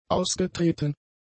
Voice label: "ausgetreten", German; adjectives